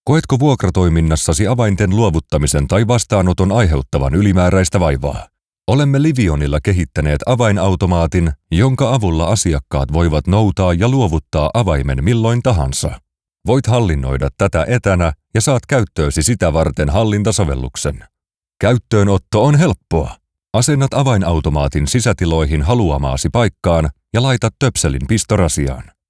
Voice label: Presentation Corporate